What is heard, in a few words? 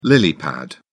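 "lily pad", British English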